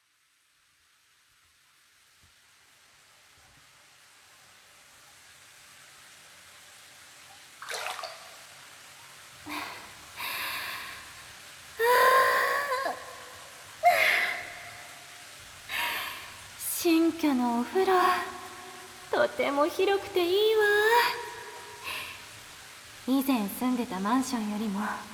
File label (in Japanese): お風呂場の同棲人妻, ASMR